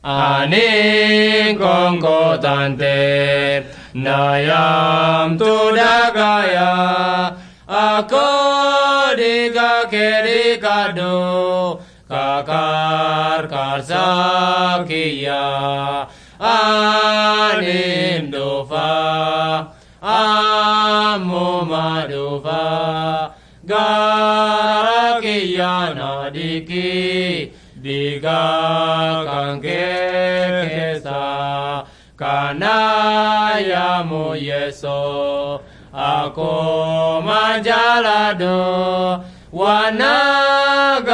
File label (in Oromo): borana gabbra; words of life